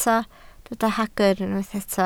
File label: "hagr", Welsh